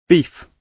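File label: "beef", British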